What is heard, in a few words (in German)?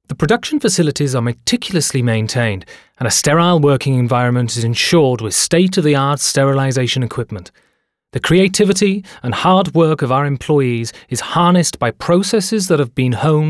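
British image, englisch; sprecher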